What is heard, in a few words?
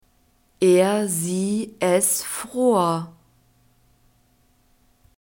"er sie es fror", German; verbs past tense irregular